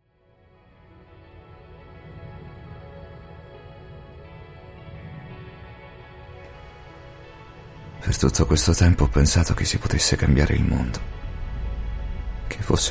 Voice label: male italian italian series finale dubbing